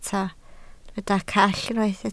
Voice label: "call", Welsh

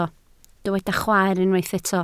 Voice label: "chwaer", Welsh

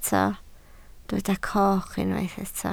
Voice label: "coch", Welsh

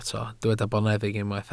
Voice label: "boneddig", welsh

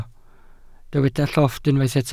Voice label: "llofft", welsh

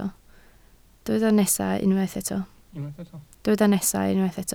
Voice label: "nesaf", Welsh

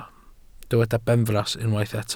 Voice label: "benfras", Welsh

